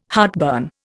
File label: "heartburn", Indian